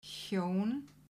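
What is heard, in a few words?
"hjón", Icelandic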